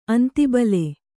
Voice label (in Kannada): "ಅಂತಿಬಲೆ", Kannada